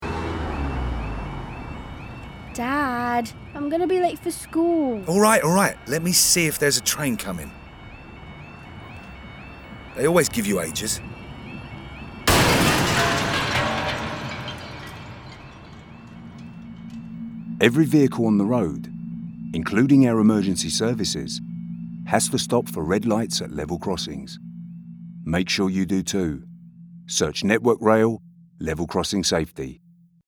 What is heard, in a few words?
radio ad; LX drivers campaign